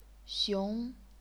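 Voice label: "xiong", chinese pinyin, second tone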